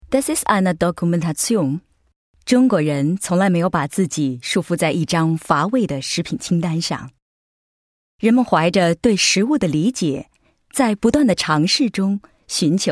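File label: Chinese female documentation; sprecher; chinesisch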